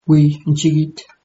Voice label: yes a little, mauritian creole